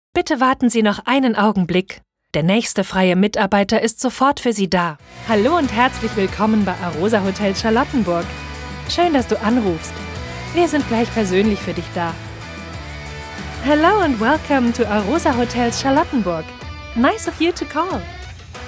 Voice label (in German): Telefonansagen